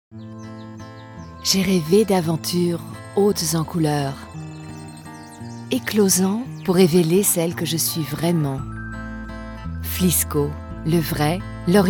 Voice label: FR; Vlisco